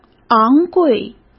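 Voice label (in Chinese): "昂贵", Mandarin Chinese; chinese-flashcards; hsk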